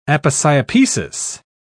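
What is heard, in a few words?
"aposiopesis", British English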